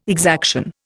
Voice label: "exaction", Indian